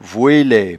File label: "voelaie", patois jura